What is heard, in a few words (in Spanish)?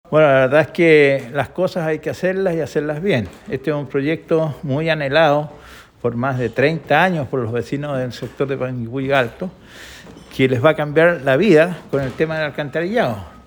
Cuña; Panguipulli Alto